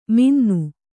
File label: "ಮಿಣ್ಣನೆ", Kannada